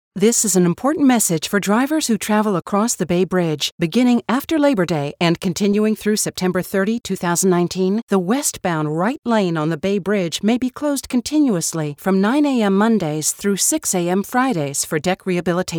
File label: PSA Informative Clear Transportation